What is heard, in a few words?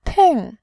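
"ting", Mandarin Chinese, fourth tone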